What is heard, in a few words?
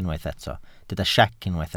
"siec", Welsh